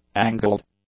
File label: "angled", English; adjectives